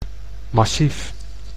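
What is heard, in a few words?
"massief", Dutch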